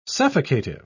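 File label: "suffocative", British English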